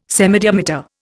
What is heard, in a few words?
"semidiameter", Indian